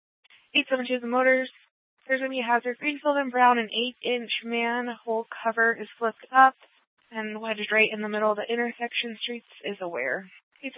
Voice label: Superstition Dispatch, Mesa PD